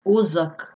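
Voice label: "uzak", Bosnian-Croatian-Serbian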